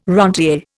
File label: "rentier", Indian